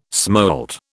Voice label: "smolt", British

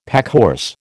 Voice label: "packhorse", American

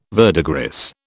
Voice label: "verdigris", British

